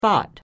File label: "fatt", Pennsylvania Dutch; Vowels - short; a in father